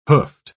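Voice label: "hoofed", British English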